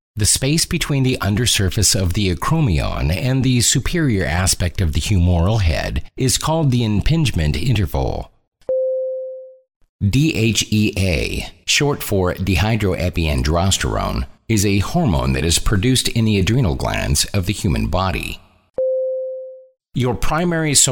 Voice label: Medical Health Narration